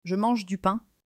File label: "Je mange du pain", French